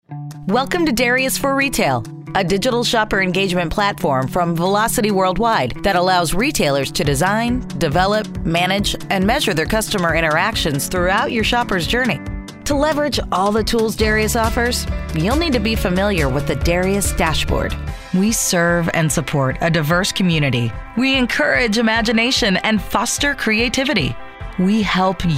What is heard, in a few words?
corporate narration